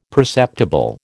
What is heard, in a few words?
"perceptible", American